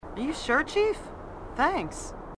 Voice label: female marine, sassy